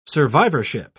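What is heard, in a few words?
"survivorship", British English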